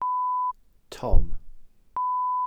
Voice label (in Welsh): "tom", glossary